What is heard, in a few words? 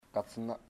rat, chamacoco